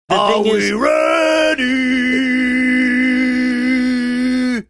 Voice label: saying are we ready drawn out